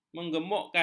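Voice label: "menggemukkan", Malay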